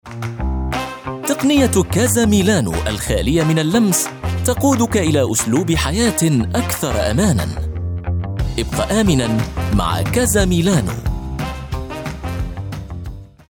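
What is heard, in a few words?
Arabic, male, TV AD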